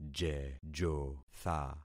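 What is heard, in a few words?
"jejotha", concatenated syllables; Affixing experiment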